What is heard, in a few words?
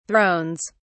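"thrones", British English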